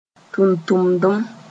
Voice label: "tʋntʋmdem", Mooré; function